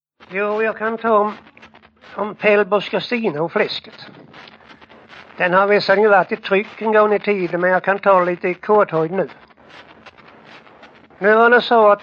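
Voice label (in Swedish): Jämshög Blekinge, dialekter, Svenska sagor; Folkminnen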